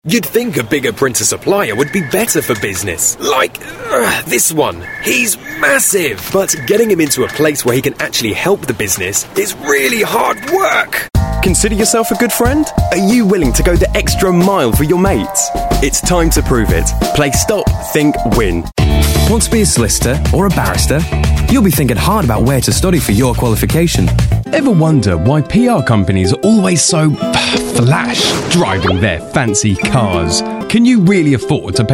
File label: UK English Montage